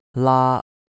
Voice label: "laa1", cantonese

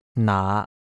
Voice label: "naa5", cantonese

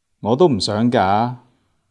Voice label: "gaa2", Cantonese

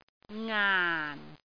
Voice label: "ngaan4", cantonese

